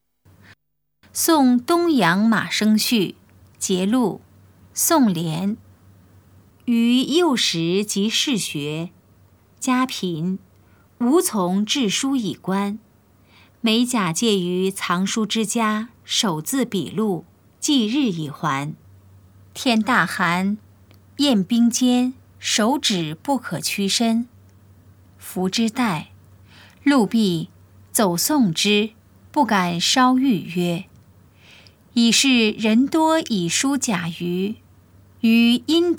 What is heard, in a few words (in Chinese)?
putonghua; 送東陽馬生序（節錄）; 普通話